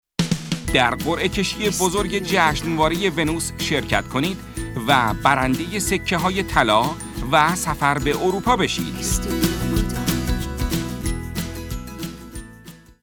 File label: commercial